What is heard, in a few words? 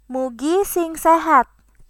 cheers, sundanese